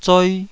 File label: "zoi2", taiwanese